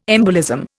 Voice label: "embolism", Indian